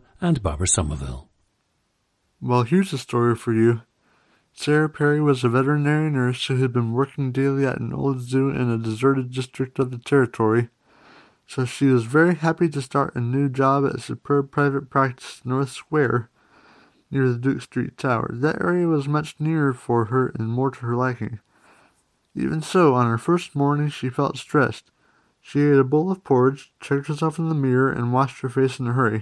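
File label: texas, International Dialects of English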